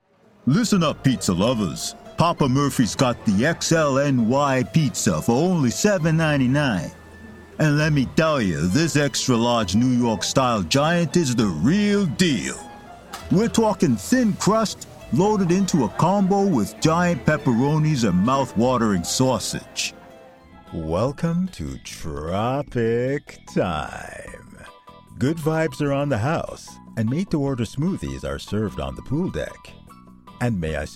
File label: commercial